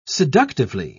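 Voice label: "seductively", British English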